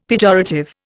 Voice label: "pejorative", Indian; Dictionary

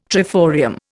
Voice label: "triforium", Indian

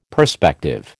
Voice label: "perspective", American

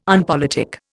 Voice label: "unpolitic", Indian

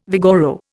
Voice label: "vigoro", Indian

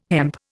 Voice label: "hemp", Indian

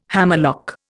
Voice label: "hammerlock", Indian

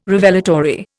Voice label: "Revelatory", Indian

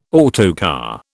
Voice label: "autocar", British

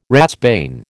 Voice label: "ratsbane", American

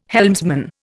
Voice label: "helmsman", Indian

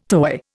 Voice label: "toy", Indian

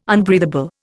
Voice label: "unbreathable", Indian

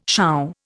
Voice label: "sure", Indian

